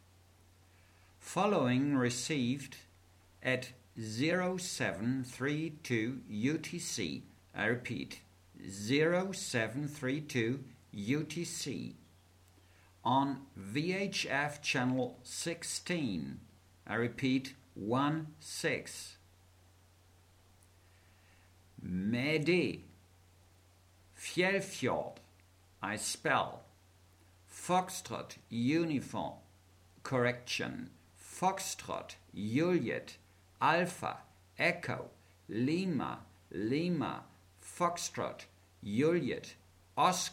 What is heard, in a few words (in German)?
Seefunktext